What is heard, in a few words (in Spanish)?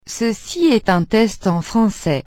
frances; I2 Localization